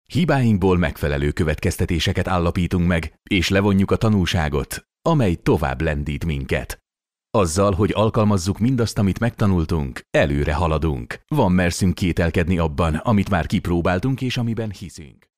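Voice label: Hungarian, male, explainer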